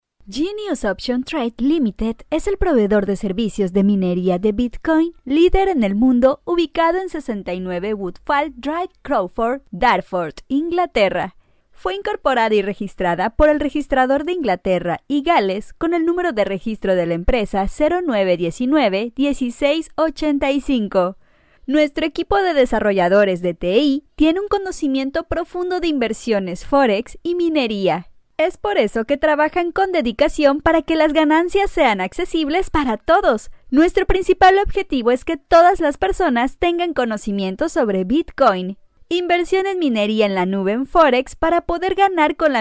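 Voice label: Spanish female; 西班牙语女; 外语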